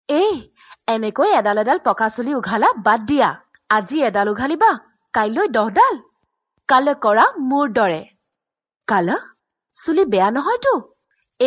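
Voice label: Assamese, General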